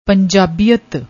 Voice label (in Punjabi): "panjabiat", Punjabi